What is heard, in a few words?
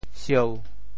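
"sieu1", Teochew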